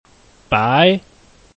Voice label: "bai2", Chinese

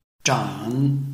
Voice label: "zhan3", Chinese

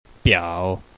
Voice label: "biao3", Chinese

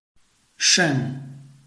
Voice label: "shen4", Chinese

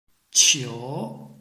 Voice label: "qiu2", Chinese

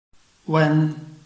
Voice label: "wen1", Chinese

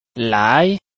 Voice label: "lai2", Chinese

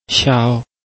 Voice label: "xiao1", Chinese

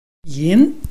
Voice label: "yin2", Chinese

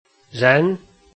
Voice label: "ren2", Chinese

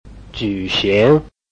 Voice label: "ju3 xing2", Chinese

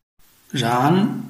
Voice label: "ran2", Chinese

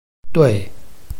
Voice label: "dui4", Chinese

